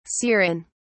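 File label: "serein", British English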